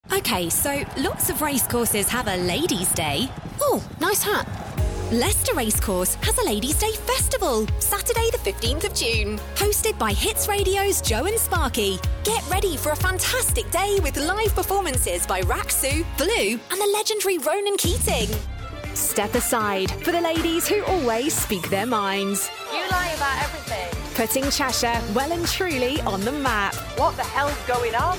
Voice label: english british promos; British Voiceover Artist